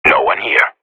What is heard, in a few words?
radio bot clear, professional; player vo